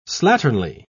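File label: "slatternly", British English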